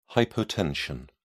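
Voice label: "hypotension", British English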